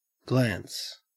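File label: "glance", Australian English; pronunciations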